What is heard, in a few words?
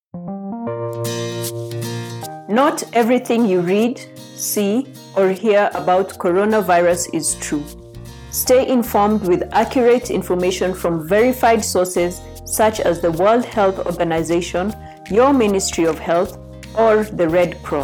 Covid19 Radio Spots ENG Female